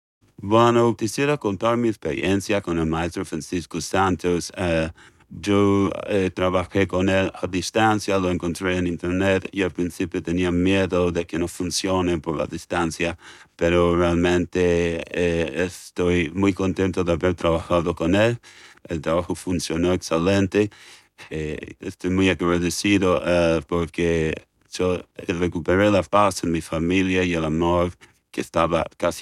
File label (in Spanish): Male Americana; EEUU